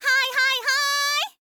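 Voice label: "hai hai haai", girl, Japanese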